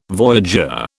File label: "voyageur", British